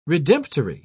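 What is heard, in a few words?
"redemptory", English, British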